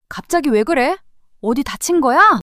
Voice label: fluster